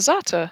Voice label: "zata" longer